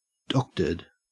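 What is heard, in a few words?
"doctored", Australian English; pronunciations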